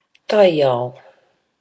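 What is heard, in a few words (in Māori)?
"taiao", Māori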